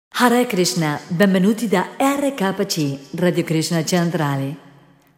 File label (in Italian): Benvenuti (solo voce); RKC; Italiano